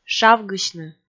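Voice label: "шавгысьны", Komi, female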